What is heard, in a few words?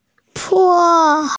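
"po1", Mandarin Chinese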